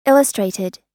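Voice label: "illustrated", US English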